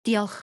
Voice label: "Diolch", Welsh; Welsh page 22